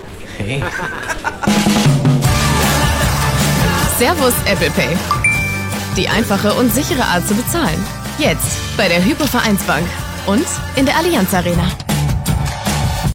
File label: Produktfilm, TVC, Commercial (Werbung), German; HypoVereinsbank ApplePay